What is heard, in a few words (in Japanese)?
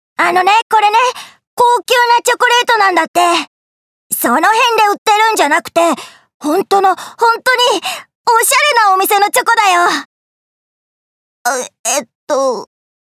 少年